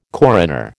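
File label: "coroner", American English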